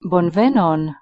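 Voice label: "bonvenon", Esperanto pronunciation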